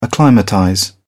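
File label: "acclimatize", British English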